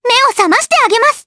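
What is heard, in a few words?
voice, Japanese; Estelle; Skill5; kingsraid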